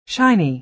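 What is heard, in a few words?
"shiny", American English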